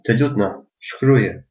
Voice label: write, albanian gheg

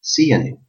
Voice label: how are you, albanian gheg

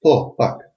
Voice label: yes a little, albanian gheg; Po, pak

